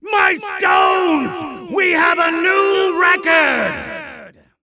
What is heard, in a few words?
Announcer New Record